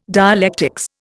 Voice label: "dialectics", Indian